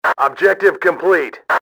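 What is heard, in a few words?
objective complete, radio, male; XBOX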